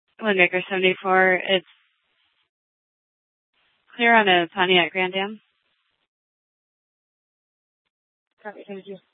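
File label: Police Department Dispatch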